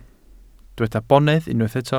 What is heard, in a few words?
"bonedd", welsh